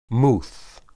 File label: "muth", Hebrew